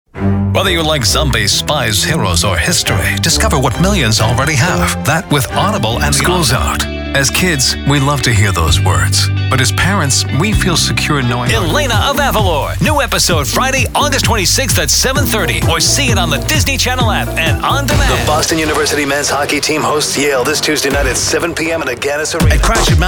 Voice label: Commercial